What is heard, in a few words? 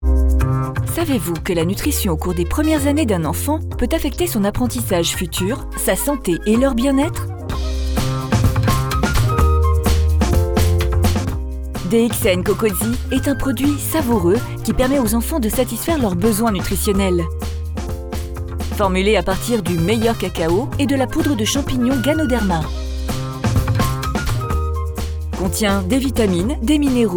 VO PUB; DXN COCOZHI